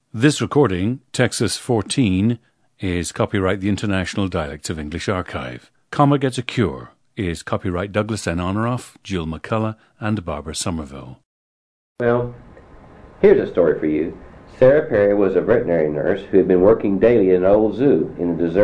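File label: texas, International Dialects of English; Texas 14; a 54-year-old man from Beaumont and Bellaire, Texas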